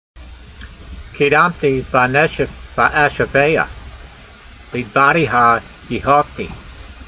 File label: voice, hebrew language; Psalm 119:147; keedamtee va'neshef va'ashavay'ah, leedvar(d)eha yeehaltee